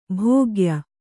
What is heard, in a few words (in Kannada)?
"ಭಾಗ್ಯ", Kannada